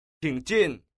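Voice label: "qing jin", Chinese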